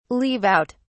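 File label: "leave out", English, British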